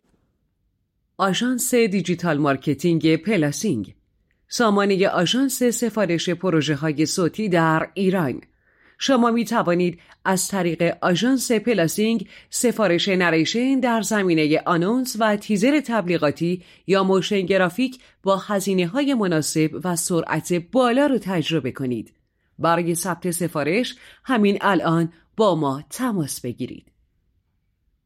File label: narrator